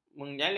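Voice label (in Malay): "menyalib", Malay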